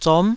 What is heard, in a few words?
"zom9", taiwanese